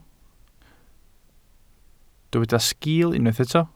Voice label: "sgiil", Welsh